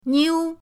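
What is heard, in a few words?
"niu", Mandarin pinyin, first tone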